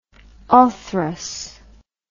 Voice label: "orthrus", English word